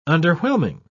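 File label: "underwhelming", British English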